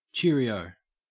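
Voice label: "cheerio", Australian English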